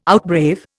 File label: "outbrave", Indian